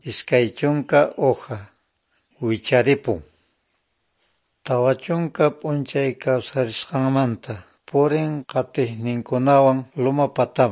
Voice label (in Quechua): good news quechua norte de bolivia apolo; quechua bible